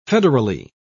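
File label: "federally", British English, dictionary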